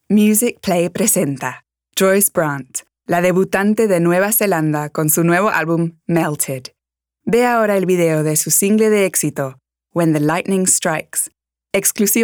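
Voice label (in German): Spanish, Werbung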